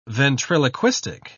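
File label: "ventriloquistic", British English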